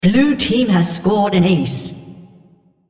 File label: On Ace blue female spectator